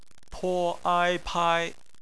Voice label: "pai", Chinese; bpmf

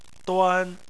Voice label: "duan1", Chinese

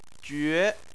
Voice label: "jue2", Chinese

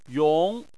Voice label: "yong2", Chinese

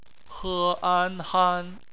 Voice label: "han", Chinese; phonetics; bpmf

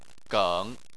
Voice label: "geng3", Chinese; Chinese Surnames

